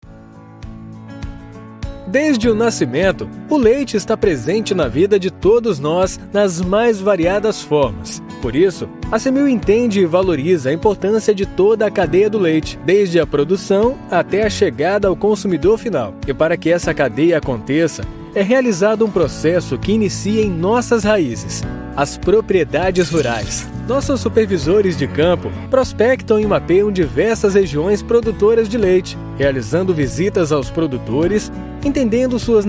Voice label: portuguese brazilian corporate videos